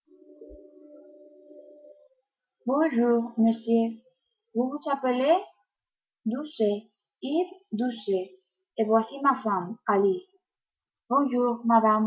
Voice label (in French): dialogues avec repetitions